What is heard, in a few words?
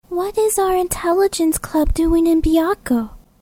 fandub; final fantasy type-0